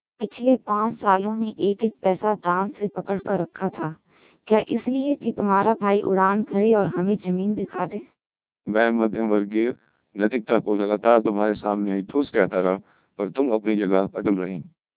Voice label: Hindi, CODEC2 1200 bps

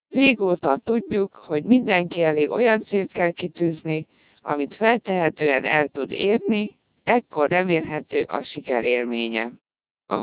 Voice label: Hungarian, CODEC2 1200 bps

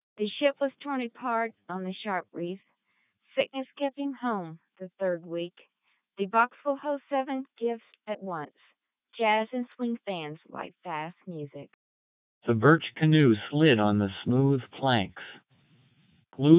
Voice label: eng short; AMBE 2400

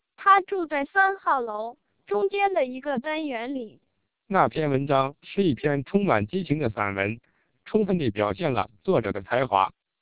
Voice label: 1200 bps TWELP, Chinese

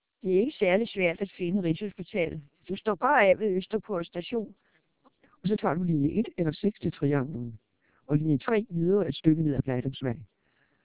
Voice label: 1200 bps TWELP, Danish

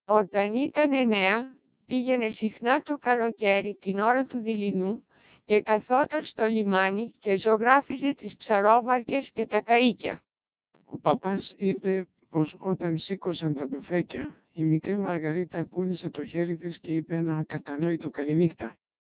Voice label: Greek, CODEC2 1200 bps

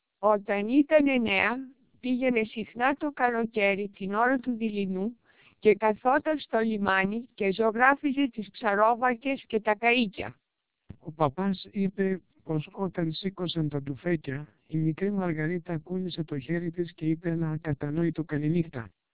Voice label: 1200 bps TWELP, Greek

